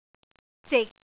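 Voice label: "zik6", Cantonese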